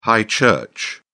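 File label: "high church", English, British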